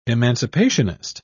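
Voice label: "emancipationist", British English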